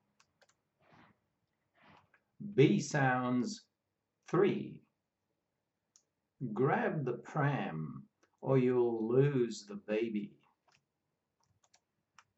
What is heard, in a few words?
B sounds, pronunciation of australian english